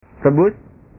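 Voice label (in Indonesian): "rebus", Indonesian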